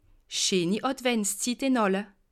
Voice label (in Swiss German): "adventszit", alsace